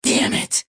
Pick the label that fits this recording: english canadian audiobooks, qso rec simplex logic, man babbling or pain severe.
pain severe